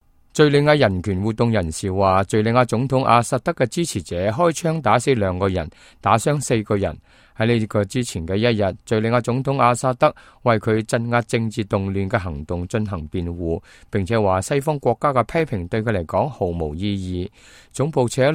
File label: cantonese, news